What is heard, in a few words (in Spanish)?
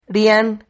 "rian", Silabas